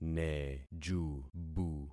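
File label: "nejubu", concatenated syllables; Affixing experiment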